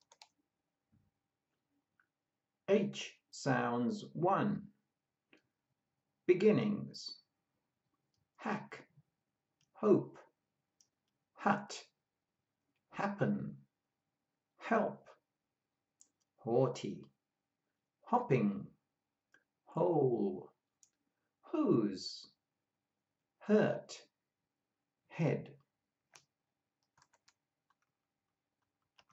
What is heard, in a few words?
H sounds, pronunciation of australian english